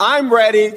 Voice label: i'm ready male; Voice; introductions